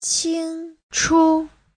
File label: "qing1chu5", chinese; 清楚; (tính từ) rõ ràng; Giáo trình chuẩn HSK3